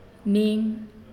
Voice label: "I", Naasioi